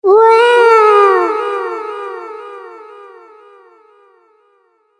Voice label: Cartoon Game Voice Wow Chasm Echoes